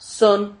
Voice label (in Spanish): "son", silabas